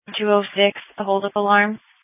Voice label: Police Dispatch